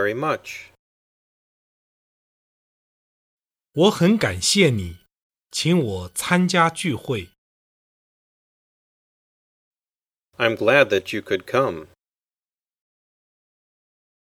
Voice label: Dialog; Chapter 5: Saying Thanks